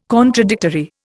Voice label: "contradictory", Indian